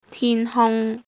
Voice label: "tin1 hung1", cantonese

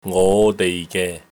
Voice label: "ngo5dei6ge3", Cantonese

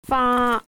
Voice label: "faa1", cantonese

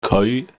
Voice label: "keoi5", cantonese

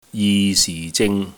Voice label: "ji6si4zing3", cantonese

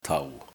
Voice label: "tau4", cantonese

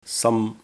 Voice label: "sam1", Cantonese

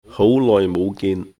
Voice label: "hou2 loi6 mou5 gin3", cantonese

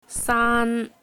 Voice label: "saan1", cantonese

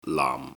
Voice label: "naam4", Cantonese

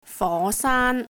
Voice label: "fo2saan1", Cantonese

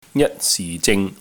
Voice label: "jat1 si4 zing3", cantonese

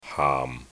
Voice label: "haam4", cantonese

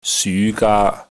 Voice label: "syu2gaa3", Cantonese

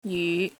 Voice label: "jyu5", Cantonese

